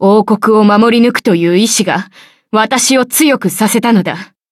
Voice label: levelup, ja

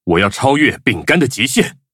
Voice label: levelup zh-hant; Cookie Run

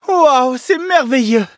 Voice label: victory, fr; Cookie Run

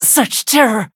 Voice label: hit, en; cookie run

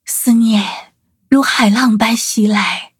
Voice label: loading, zh-hant; Cookie Run

